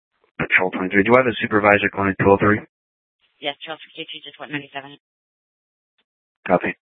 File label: Scottsdale PD Sth Dispatch, scanner; Regional Wireless Cooperative (RWC) - Simulcast H- Scottsdale